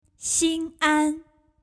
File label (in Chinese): Xianan